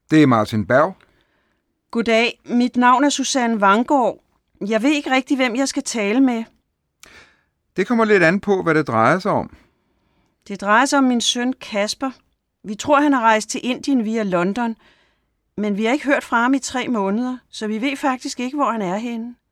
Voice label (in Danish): dialog